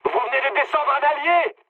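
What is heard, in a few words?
player killed ally, mood medium, French; War Thunder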